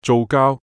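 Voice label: "灶跤", Cantonese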